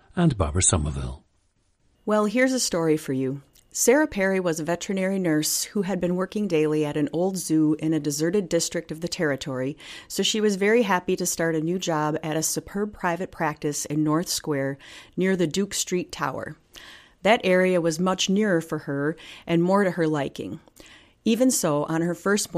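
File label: wisconsin, dialects of English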